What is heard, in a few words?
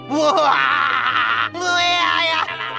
laugh; doodlebob